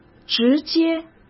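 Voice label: "直接", Mandarin Chinese; chinese flashcards; hsk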